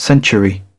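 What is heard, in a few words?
"century", British English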